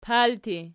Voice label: "palti", Archi